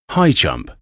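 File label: "high jump", English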